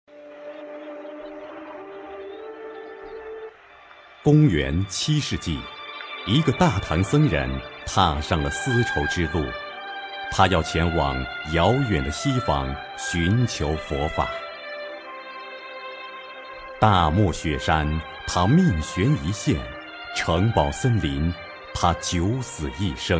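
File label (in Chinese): 男纪录片; 玄奘之路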